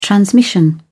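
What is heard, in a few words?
"transmission", British English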